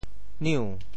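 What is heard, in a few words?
"niu2", Teochew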